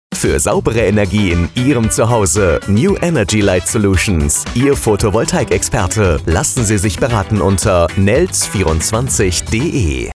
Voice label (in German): Funkspot; New Energy Light Solutions